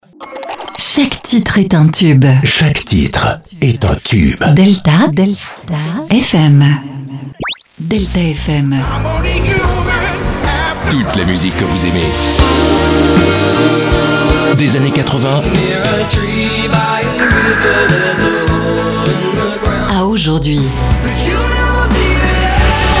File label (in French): habillage radio; delta fm